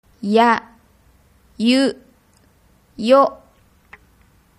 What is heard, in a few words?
yayuyo, Japanese